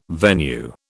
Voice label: "venue", British

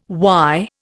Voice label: "why", Indian

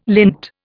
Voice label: "lint", Indian

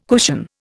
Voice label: "cushion", Indian

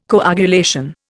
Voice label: "coagulation", Indian

